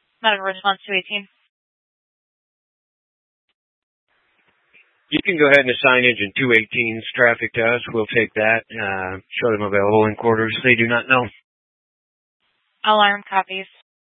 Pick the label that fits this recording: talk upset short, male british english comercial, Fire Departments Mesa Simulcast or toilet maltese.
Fire Departments Mesa Simulcast